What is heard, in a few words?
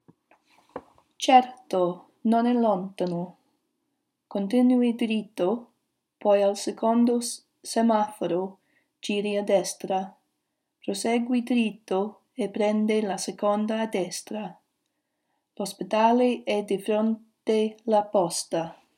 speaking question, italiano